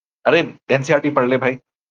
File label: voice message